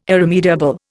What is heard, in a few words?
"irremediable", Indian